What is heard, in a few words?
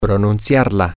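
Spanish, to pronounce it feminine, male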